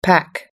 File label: "pack", us english